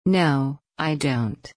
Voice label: low